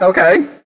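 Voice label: "okay", male voice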